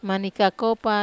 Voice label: "mani kakopa", Wamesa